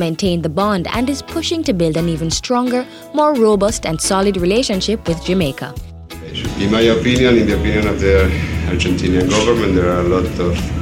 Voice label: Argentine Spanish Training; Argentine Spanish Training Prog